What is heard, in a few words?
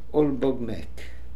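"Olbogmek", pronunciations; Fourth Way; Beelzebub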